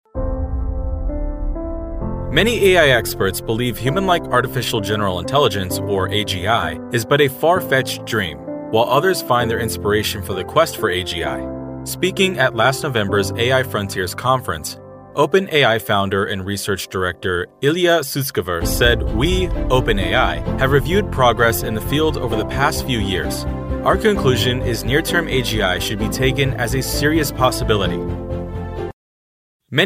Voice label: 外籍男英 外语 美式英语 宣传片解说

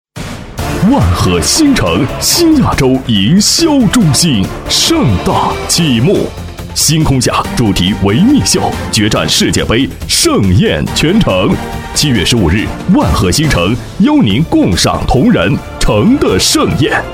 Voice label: 广告, 促销, 地产促销广告, 激情

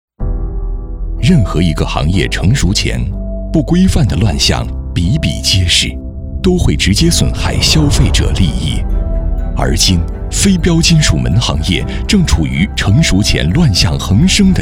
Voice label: 宣传片 企业 行业 浑厚

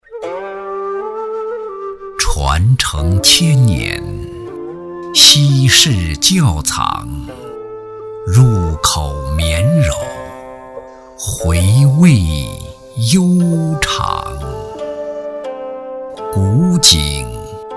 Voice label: 广告 酒类 厚重, 男国; 古井原浆酒

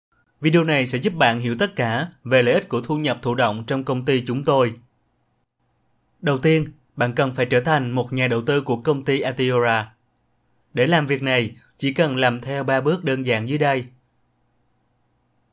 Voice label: 越南语男, 外语, 小语种

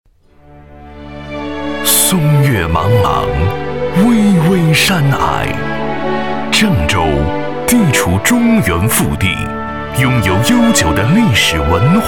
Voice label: 宣传片 城市 大气, 男国; 郑州宣传片

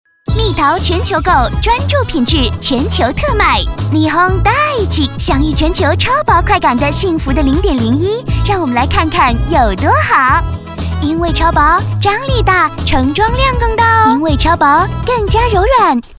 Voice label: 女 广告 品牌形象 稚嫩可爱的广告 可爱

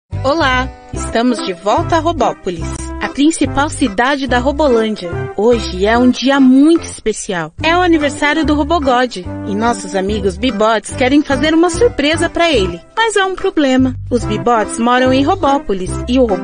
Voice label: 葡萄牙语女 外语 小语种 时尚

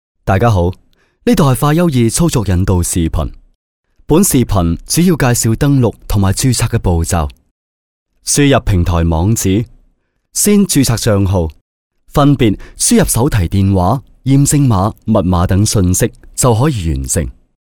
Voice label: 男粤 多媒体 产品演示; 粤语; 快优易

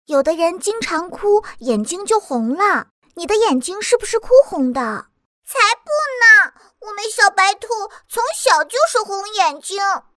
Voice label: 动画 角色 2个小姑娘一问一答; 女

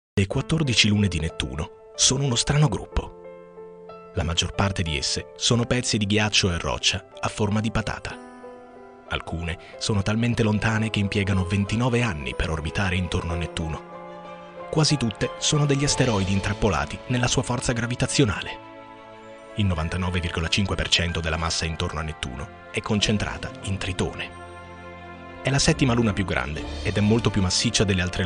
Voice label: 意大利语男 外语 小语种 讲述

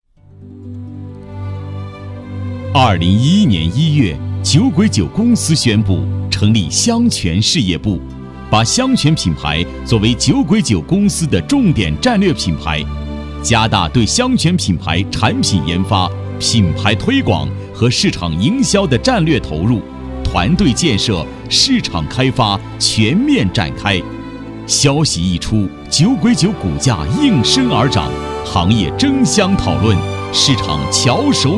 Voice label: 男 宣传片 抒情; 企业; 酒鬼酒公司宣传片

